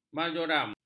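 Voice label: "marjoram", Malay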